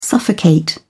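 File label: "suffocate", British English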